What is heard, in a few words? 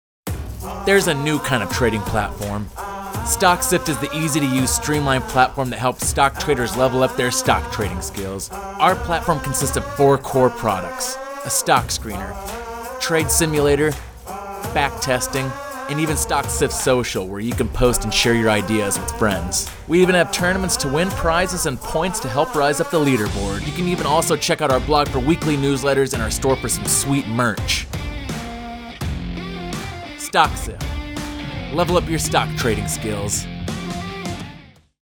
Commercial; Stocksift